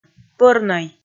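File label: "пӧрнӧй", Komi, female; kpv audio dict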